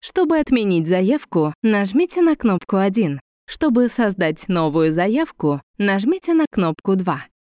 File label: ivr